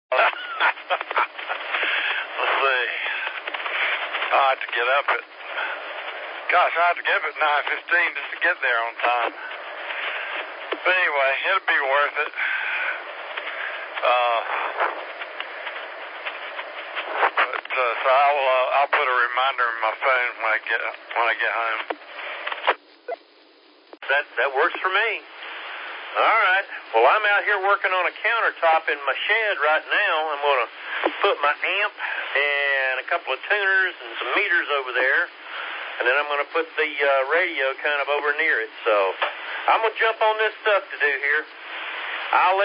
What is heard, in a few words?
qso rec simplex logic